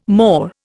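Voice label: "more", Indian English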